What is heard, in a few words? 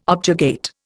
"objurgate", Indian